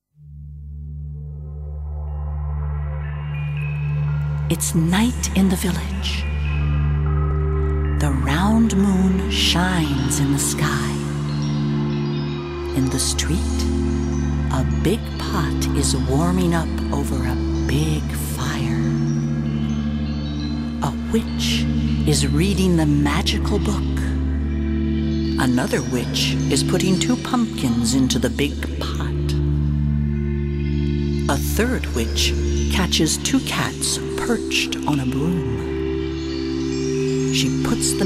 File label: a scary story